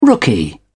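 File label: "rookie", English, British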